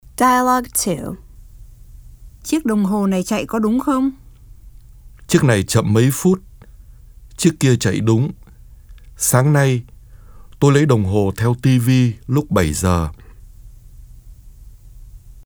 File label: Dialog